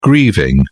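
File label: "grieving", British English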